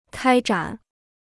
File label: "开展", Chinese